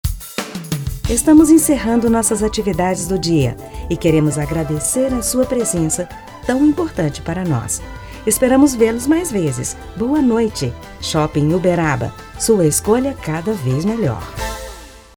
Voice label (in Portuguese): radio neutro alegre; shopping uberaba